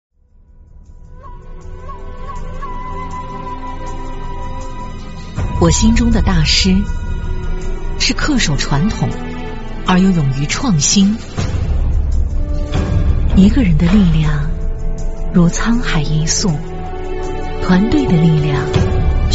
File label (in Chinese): 女 广告 厚重广告片